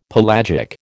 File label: "pelagic", American